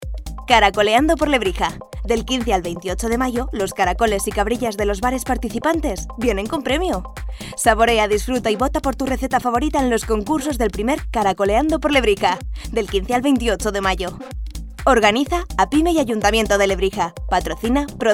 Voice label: locutora spanish voiceover anuncio